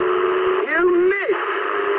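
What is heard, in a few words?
bomb failure mood medium, speech English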